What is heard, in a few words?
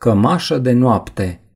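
"nightgown", Romanian; gcompris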